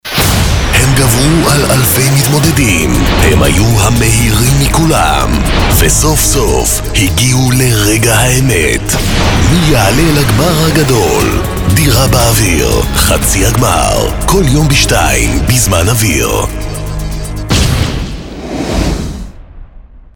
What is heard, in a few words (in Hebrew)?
קריינות לפרומו דירה באוויר